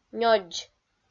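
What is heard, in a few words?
"нёдз" Komi-Zyrian, female; kpv audio dict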